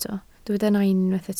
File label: "nain", Welsh